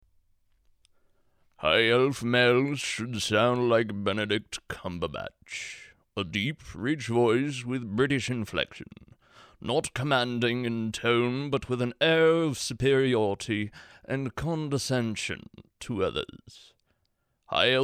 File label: audition high elf, voice acting